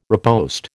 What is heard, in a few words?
"riposte", American